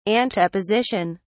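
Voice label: "anteposition", British English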